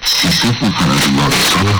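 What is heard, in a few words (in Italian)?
"chissà", Italian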